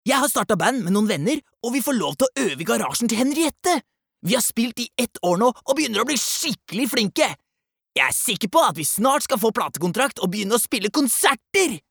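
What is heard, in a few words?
Norwegian dub young adult, male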